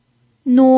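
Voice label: "nuo", first tone, Mandarin Chinese pinyin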